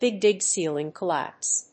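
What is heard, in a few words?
"Big Dig ceiling collapse", English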